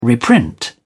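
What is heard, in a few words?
"reprint", British English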